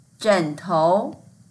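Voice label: "zhen3tou2", Mandarin Chinese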